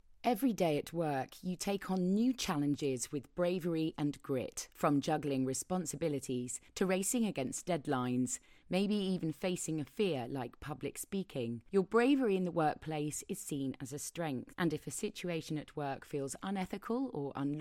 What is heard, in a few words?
VO NATURAL